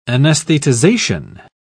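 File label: "anaesthetization", British English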